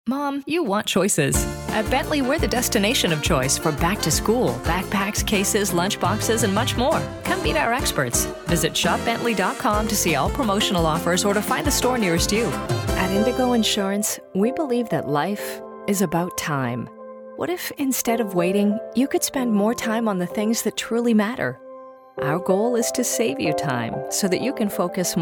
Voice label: english canadian commercial; voice actors